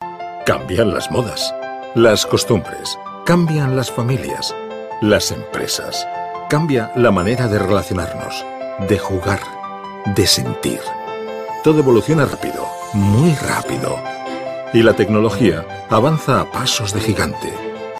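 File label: European Spanish, General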